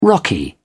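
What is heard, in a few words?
"rocky", British English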